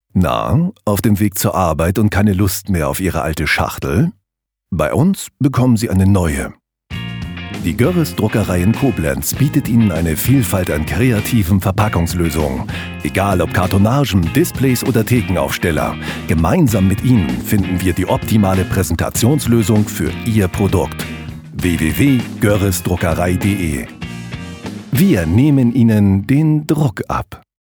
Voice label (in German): Radiospot morgens; Goerres Druckerei